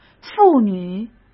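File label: "妇女", Mandarin Chinese; hsk; chinese-flashcards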